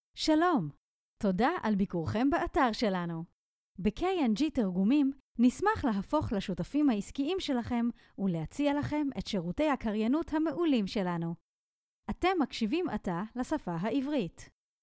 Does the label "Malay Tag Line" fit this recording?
No